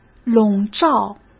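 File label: "笼罩", Mandarin Chinese; hsk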